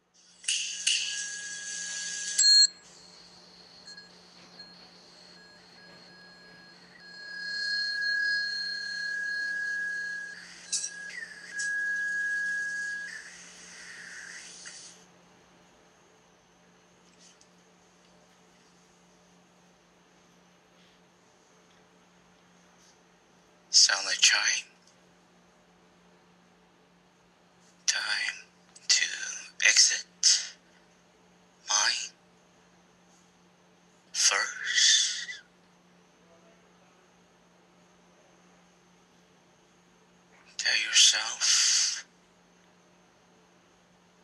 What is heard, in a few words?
Meditation; COVID 19; Dharma Drum Center